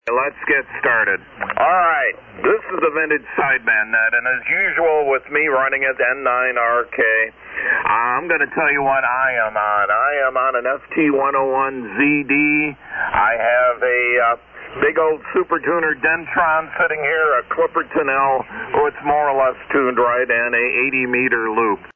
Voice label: Yaesu FT-101ZD & Dentron Clipperton Amp; Delavan,Wi.